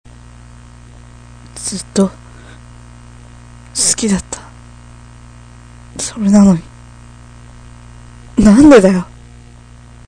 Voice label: love m